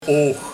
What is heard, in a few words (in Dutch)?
"Ooch", Ook